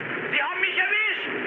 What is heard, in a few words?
aircraft destroyed, mood low, speech ge